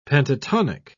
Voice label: "pentatonic", pronounce

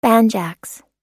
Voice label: "banjax", pronounce

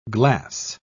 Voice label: "glass", pronounce

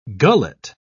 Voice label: "gullet", pronounce

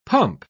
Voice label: "pump", pronounce

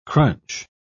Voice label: "crunch", pronounce

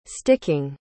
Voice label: "sticking", pronounce

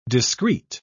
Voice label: "discrete", pronounce

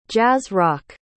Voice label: "jazz-rock", pronounce

